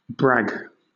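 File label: "brag", British English; pronunciations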